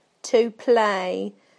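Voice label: "to play", British English; PictureDictionary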